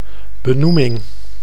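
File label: "benoeming", Dutch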